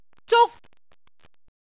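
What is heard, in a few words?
"zuk1", Cantonese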